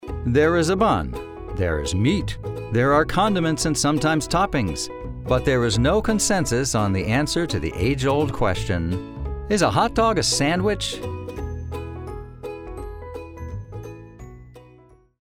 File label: Narration; Hot Dogs